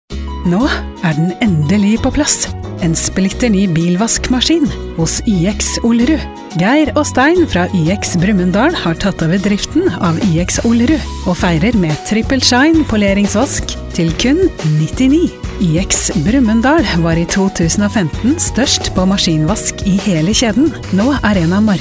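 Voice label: Norwegian, female, explainer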